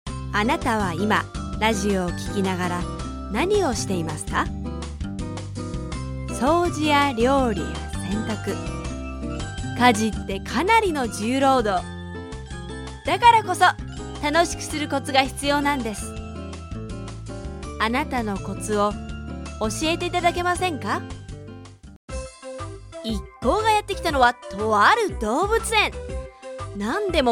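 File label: Japanese, game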